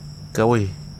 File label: "good", Wahgi; lae unitech